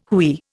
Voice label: "hooey", Indian